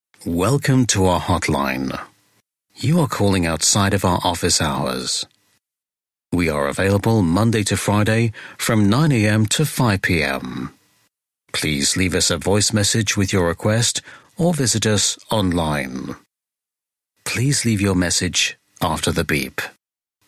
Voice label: telefon, english voiceovers